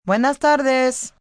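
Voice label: Good Afternoon Spanish, Greetings, female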